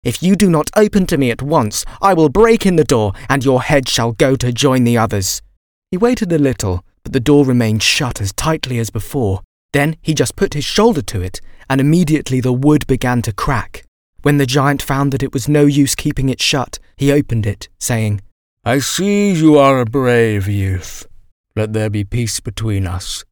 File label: Audiobook